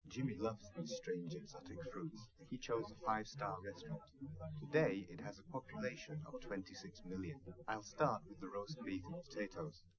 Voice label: male, live rec; SiSEC11; underdetermined

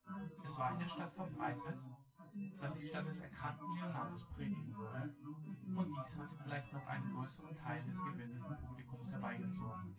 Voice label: test male synthconv

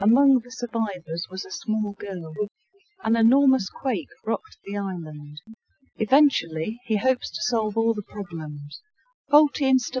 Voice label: female inst src; SiSEC11; underdetermined

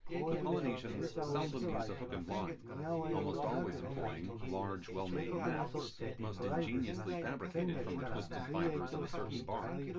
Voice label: male synth conv mix

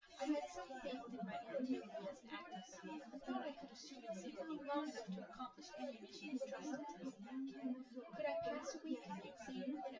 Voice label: female, synthconv, src; SiSEC08; SiSEC underdetermined

